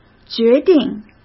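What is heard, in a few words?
"决定", Mandarin Chinese; hsk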